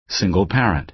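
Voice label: "single parent", American English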